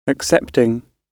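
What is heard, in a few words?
"accepting", British English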